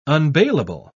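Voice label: "unbailable", British English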